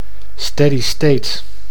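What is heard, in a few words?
"steady state", Dutch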